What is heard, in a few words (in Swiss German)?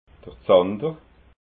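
"zander", Alsatian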